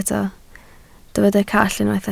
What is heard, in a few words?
"call", Welsh